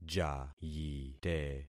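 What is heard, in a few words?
"jayite", concatenated syllables; Affixing experiment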